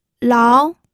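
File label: "lao", second tone, pinyin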